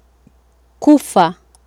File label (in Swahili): "kufa" Swahili